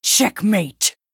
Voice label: Female Chess Piece